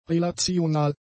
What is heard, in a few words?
"relational", German; adjectives